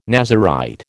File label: "Nazirite", American